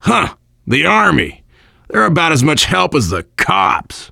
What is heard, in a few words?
army truck, voice, biker; Left 4 Dead 2; left4dead2 dlc3; survivor